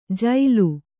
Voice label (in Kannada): "ಜೈಲು", Kannada